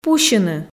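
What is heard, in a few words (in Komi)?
"пусьыны", Komi, female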